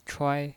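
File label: "chuai", Mandarin Chinese pinyin voice, first tone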